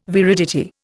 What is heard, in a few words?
"viridity", Indian